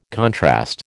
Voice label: "contrast", American